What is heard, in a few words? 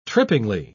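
"trippingly", British English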